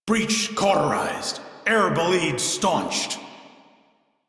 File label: Crew Fix Breach, Male; Dialog; en; Warframe; Railjack Crew; Arbiters Hexis